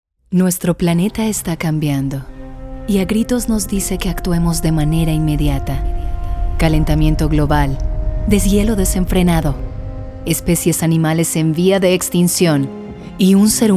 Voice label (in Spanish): Narración LATAM